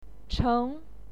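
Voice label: "cheng", Mandarin Chinese, second tone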